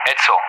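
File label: "Edsel", English; Callsign; DCS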